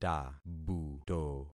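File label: "tabuto", concatenated syllables; Affixing experiment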